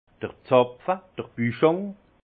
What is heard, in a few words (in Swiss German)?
"zapfe", alsace; vin; bouchon